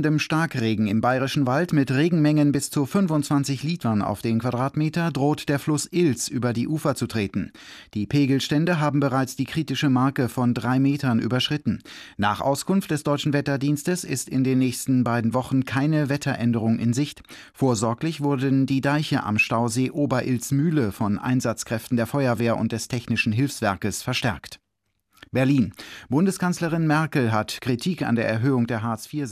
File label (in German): Nachrichten